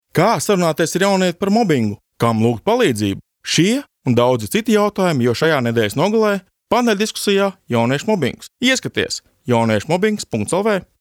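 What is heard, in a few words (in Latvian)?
Reklāma Latvian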